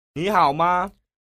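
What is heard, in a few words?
"nihaoma", Mandarin Chinese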